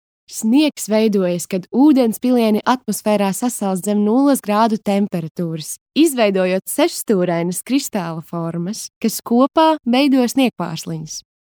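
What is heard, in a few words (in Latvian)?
Infografika Latvian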